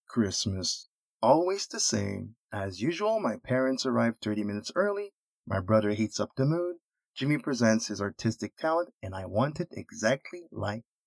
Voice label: eng CHRISTMAS